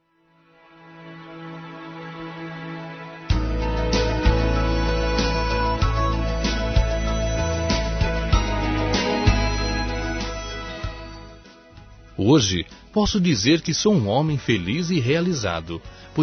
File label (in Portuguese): Natal e Ano Novo Sogro Masculino; Telemensagens; Misto